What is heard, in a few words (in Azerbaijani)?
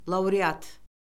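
"laureat", Azerbaijani; Orfoqrafiya Lüğəti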